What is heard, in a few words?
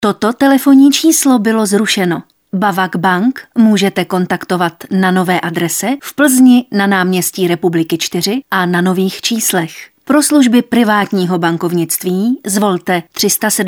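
Female Czech IVR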